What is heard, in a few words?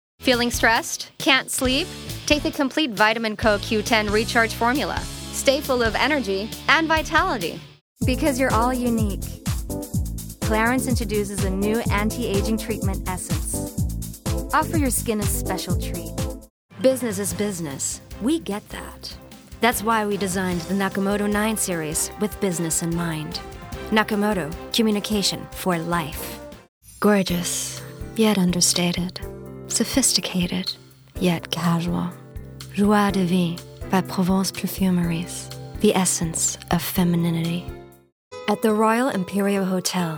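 American, TV commercial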